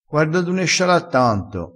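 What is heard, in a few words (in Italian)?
"sciala", dialettale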